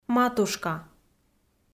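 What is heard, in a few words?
"матушка", Komi, female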